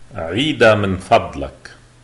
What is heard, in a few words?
say again, lebanese arabic